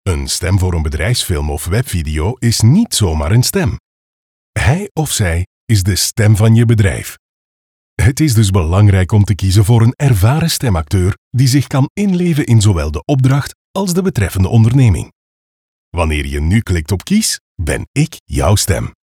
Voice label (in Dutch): BEDRIJFSFILM